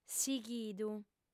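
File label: "sighidu", Sardinian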